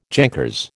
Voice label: "jankers", American